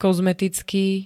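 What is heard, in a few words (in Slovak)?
"kozmetický", Slovak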